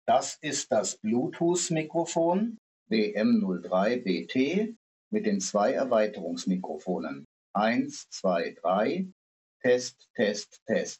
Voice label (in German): mic wm03 bt, mikrofon test sprachqualitaet; kabelloses Konferenz Mikrofon mit Lautsprecher und Echo Canceller, inkl. zwei wireless Erweiterungsmikrofone